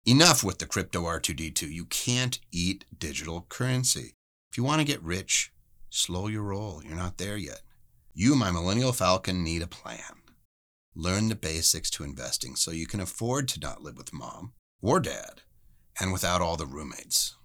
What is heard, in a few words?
Book Promo; Star Wars